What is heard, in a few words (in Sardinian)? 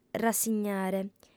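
"rassignare", Sardinian, female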